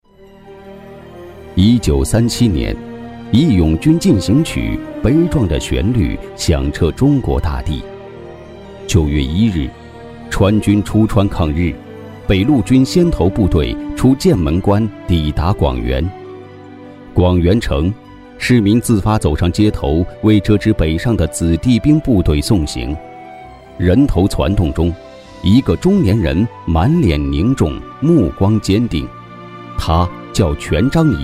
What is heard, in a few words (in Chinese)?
男 纪录片; 川军出川